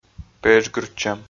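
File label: "педжгыртчӧм", Komi, male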